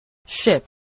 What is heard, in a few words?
"ship", spelling